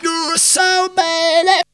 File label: "you are so", Funky Vocals; USB Soundscan vol.18; AKAI